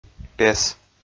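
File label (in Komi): "пес", Komi, male; audio dict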